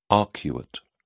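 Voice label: "arcuate", English, British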